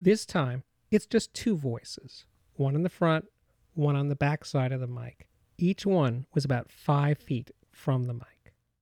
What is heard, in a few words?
Front, Rear Voices Announcement; The Ultimate Headphone Demonstration Disc ( 24Bit192kHz ); Chesky Record